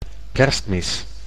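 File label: "Kerstmis", Dutch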